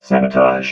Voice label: "sabotage", vox robot

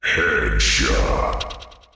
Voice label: headshot, male

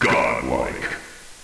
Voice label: "godlike", male

